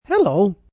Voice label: "Hello" Cracking, male; Greetings

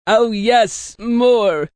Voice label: Oh Yes More, Male; Sex; VoiceBox

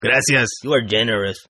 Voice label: Toughguy Spanish, Tough Hispanic Male; Toughguy Ty Spanish; Etiquette Sound Effects

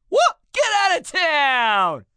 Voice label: Get Outta Town, Reaction, positive, male; Exclamation

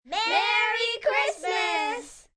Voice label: Group Christmas, Group, 4-6 children

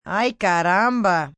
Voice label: "Ay Carumba", Reaction, negative, female, Spanish; Exclamation; Voice Box

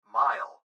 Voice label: Gps Voice, Male; Speaker Adult Male; Recorded Voice

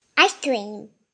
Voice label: Kid Says Ice Cream; Kid talking; Sound Effects:Voice

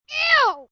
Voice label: "Ew" Kids, child, disgusted; Talking; VoiceBox

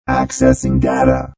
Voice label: Robot Voice Accessing Data

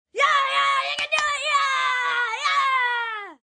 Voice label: Cheer You Can Do It, 1 boy, 9 yrs, Yelling

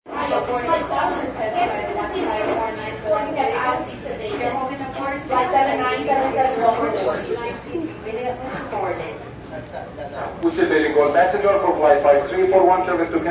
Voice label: Airport Announcements Multiple; Backgrounds; Airports

